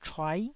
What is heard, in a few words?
"chuai9", Taiwanese